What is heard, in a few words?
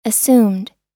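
"assumed" US English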